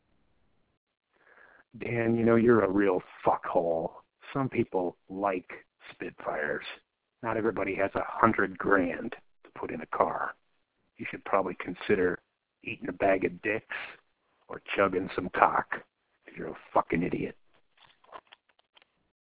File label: Voicemail